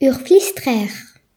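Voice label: "squirt", Breton; gcompris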